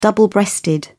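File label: "double breasted", British English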